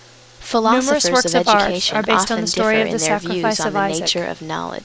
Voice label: female female speech